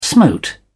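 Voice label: "smote", British English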